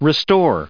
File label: "restore", English